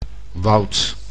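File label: "woud", Dutch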